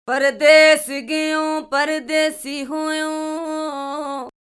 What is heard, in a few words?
DI Pitch, pitch correction for vocal effects